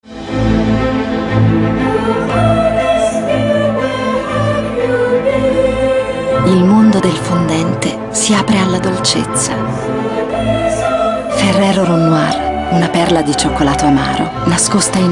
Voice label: commercial; ferrero rond noir 2010